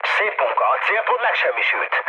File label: bomb success, mood med, speech hun; War Thunder